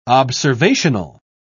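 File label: "observational", British English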